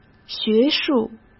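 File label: "学术", Mandarin Chinese; hsk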